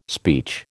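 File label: "speech", American English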